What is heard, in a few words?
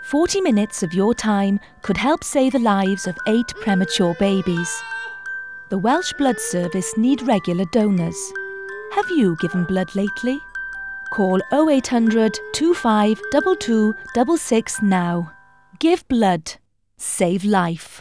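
Welsh, female, English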